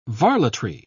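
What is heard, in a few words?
"varletry", British English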